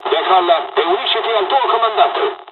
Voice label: jpn takeoff, dialogs italian; midway; War Thunder